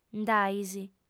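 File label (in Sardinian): "nd'ais", female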